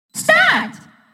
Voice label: announcer start; mario party

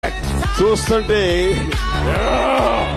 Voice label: awwwww

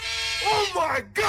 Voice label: crazy oh my god sound effect

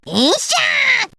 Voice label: yell; necoarc

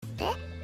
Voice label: noises, huh eh; gabriel dropout; satania

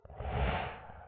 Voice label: monster whisper

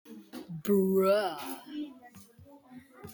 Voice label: bruuuuuuhh

